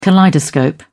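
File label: "kaleidoscope", British English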